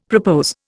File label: "propose", Indian; Dictionary